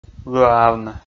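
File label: "лыаавны", Komi, male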